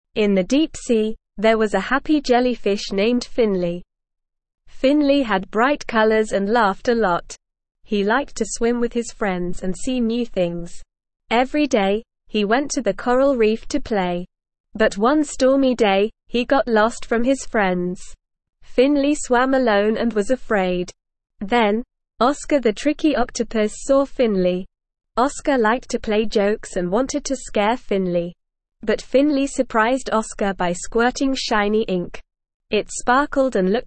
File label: ESL Short Stories for Kids Beginner NORMAL Reading; The Jolly Jellyfish